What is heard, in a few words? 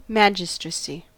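"magistracy", US English; pronunciations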